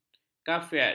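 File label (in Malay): "kaifiat", Malay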